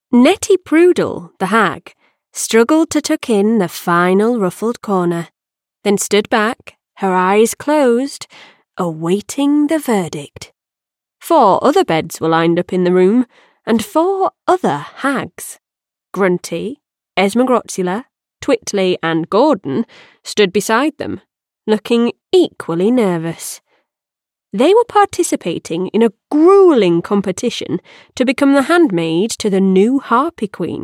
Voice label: Childrens Fantasy dialogue R.P Yorkshire Accents comedy magical